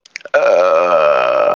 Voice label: voice message